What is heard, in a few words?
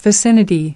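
"Vicinity", English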